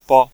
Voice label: "After", Czech, male